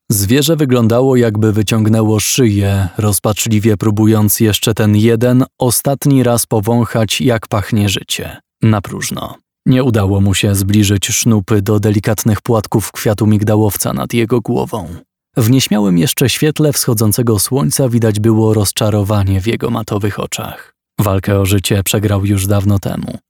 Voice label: Polish, Male

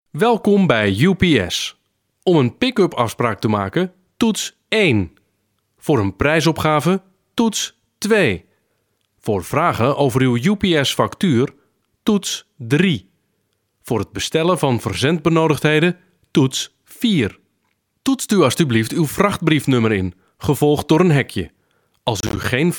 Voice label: Male, Dutch

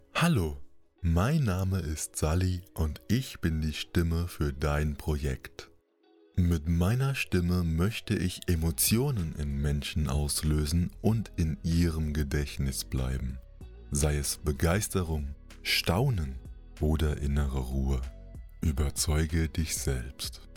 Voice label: German, Male

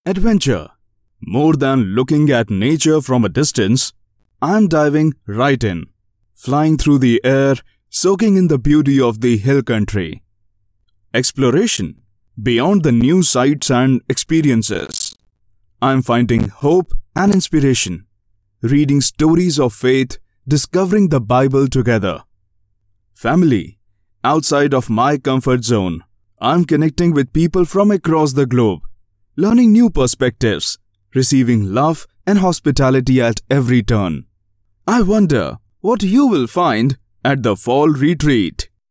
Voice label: Male, English, India

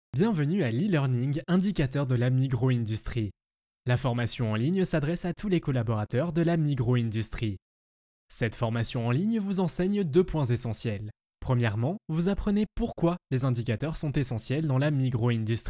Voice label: French, Male

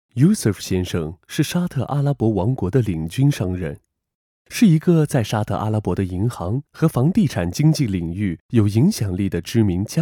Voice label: Chinese, Male

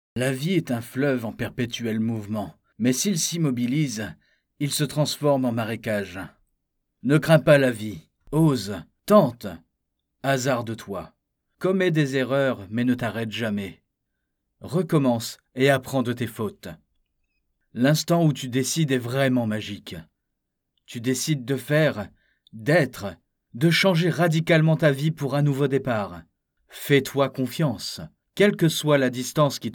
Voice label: French, Male

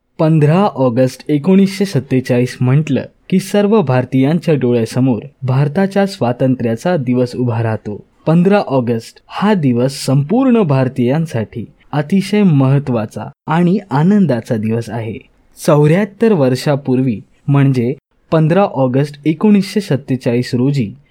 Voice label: Marathi, Male

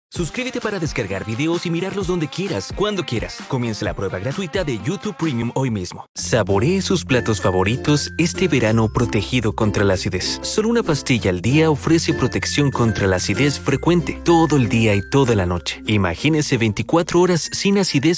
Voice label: Spanish (LA) Male

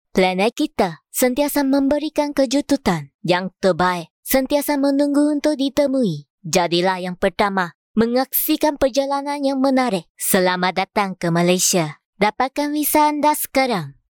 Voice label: Malay, Female

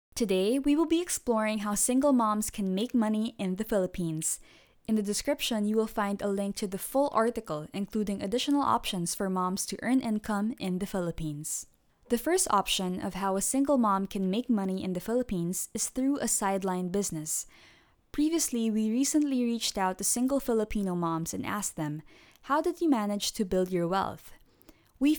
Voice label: English Asian Female

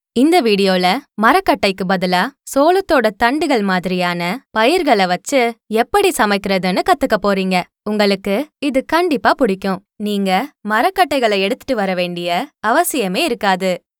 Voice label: Tamil, Female

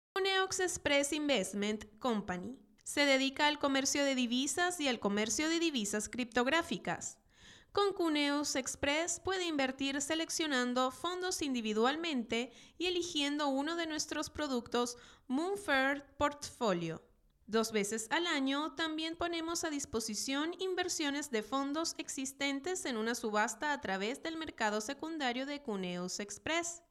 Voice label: Spanish (LA), Female

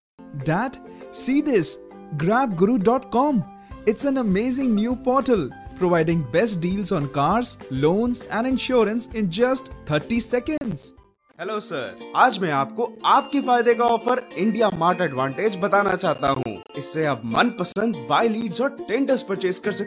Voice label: Male, English India

